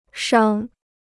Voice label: "伤", Chinese